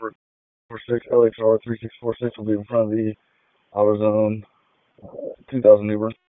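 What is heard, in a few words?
Raleigh Police Downtown District Dispatch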